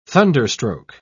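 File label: "thunderstroke", British English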